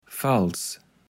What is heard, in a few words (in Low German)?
"falß", kaevels platt